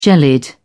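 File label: "jellied", British English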